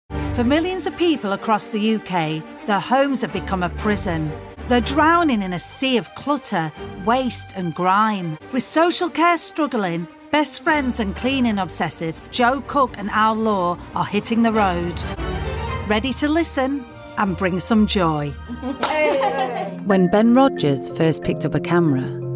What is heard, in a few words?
Documentary